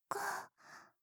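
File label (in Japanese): 言葉責めセリフ用カウント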